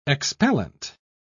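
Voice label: "expellent", English, British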